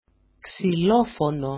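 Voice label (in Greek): "ksilofono", Greek; ξυλόφωνo; xylophone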